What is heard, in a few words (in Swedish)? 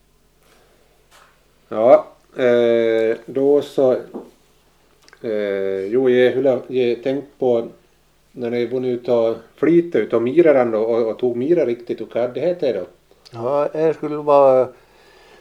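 Boda Dalarna, dialekter; Folkminnen; Svenska sagor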